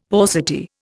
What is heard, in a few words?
"paucity", Indian; Dictionary